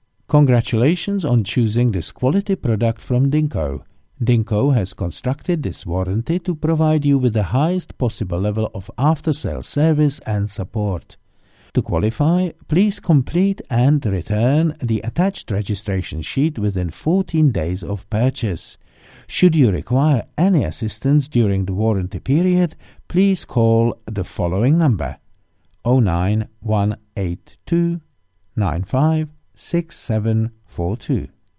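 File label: Czech English; Eastern European; Male; 30s-40s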